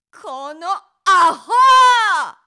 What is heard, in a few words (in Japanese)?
この、アホ～, 怒鳴る女 怒る女